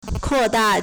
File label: "kuo4da4", Chinese